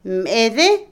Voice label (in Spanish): Otomí, mujer; contar; Cantidad; INALI